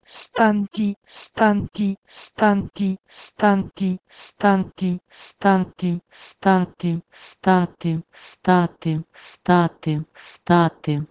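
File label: Proto-Indo-European "sth2enti" to Ukrainian "stati"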